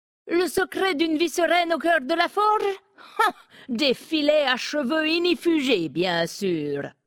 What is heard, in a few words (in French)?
Femme Blague Secret; Nain sombrefer